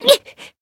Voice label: damaged jp; hiina

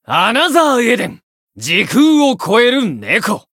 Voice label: title, jp; shigure

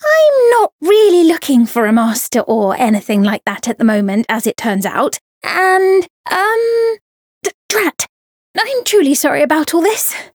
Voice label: story; myungwha

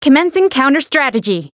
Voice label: variable chant; renri

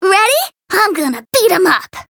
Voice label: chant

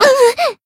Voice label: damaged, jp; hisumena

